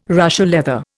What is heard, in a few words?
"Russia leather", Indian